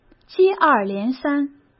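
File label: "接二连三", Mandarin Chinese; chinese-flashcards; hsk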